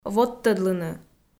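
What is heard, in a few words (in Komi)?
"водтӧдлыны", Komi, female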